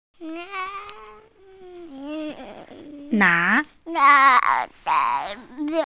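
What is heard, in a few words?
"na2", Mandarin Chinese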